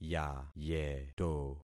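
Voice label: "yayeto", concatenated syllables; Affixing experiment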